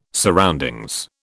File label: "surroundings", British